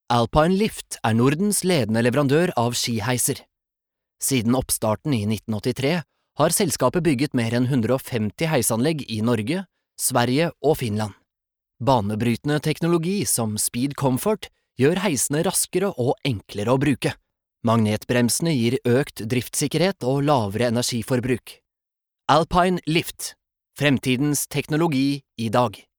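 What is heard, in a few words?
Norwegian corporate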